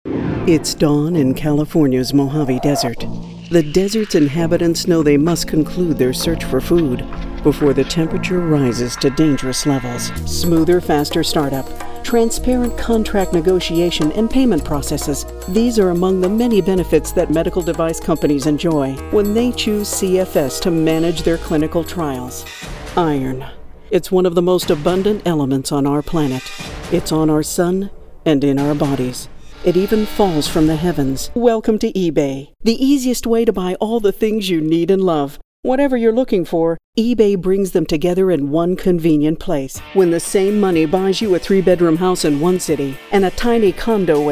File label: narration